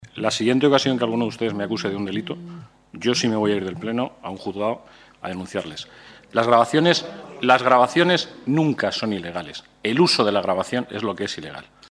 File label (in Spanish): noticias